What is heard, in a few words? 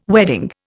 "wedding", Indian